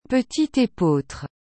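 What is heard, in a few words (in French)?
"petit epeautre", French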